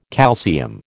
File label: "calcium", American